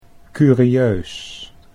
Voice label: "curieus", Dutch

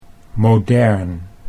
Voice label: "modern", Dutch

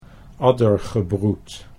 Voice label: "addergebroed", Dutch

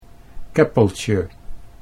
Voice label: "keppeltje", Dutch

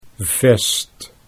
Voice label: "vest", Dutch

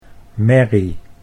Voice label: "merrie", Dutch

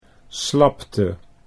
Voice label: "slapte", Dutch

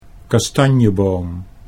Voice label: "kastanjeboom", Dutch

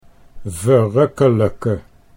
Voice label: "verrukkelijke", Dutch

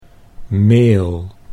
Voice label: "meel", Dutch

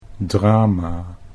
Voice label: "drama", Dutch

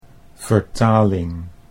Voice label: "vertaling", Dutch

